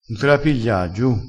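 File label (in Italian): "giu", vocabolario dialettale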